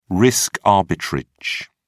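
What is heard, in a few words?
"risk arbitrage", British English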